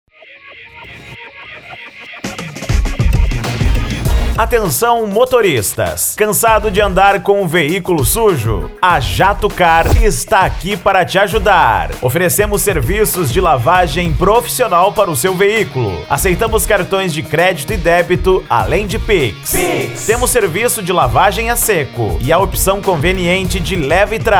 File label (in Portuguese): Locutor; Jatto Car Rio Verde GO